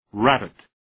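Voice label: "rabbit", English word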